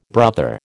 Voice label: "brother", American